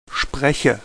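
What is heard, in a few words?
"spreche", German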